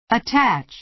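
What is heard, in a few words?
"attach", American English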